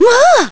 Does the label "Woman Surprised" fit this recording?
Yes